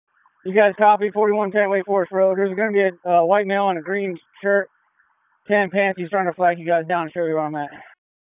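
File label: Police Northeast District Dispatch; Raleigh; p25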